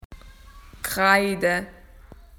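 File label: "chalk", Australian English; PictureDictionary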